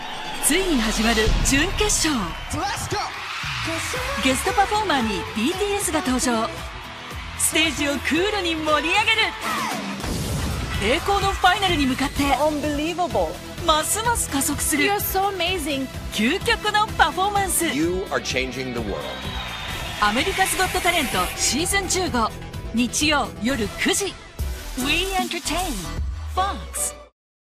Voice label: Promo; AGT